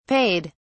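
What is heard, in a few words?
"payed", British English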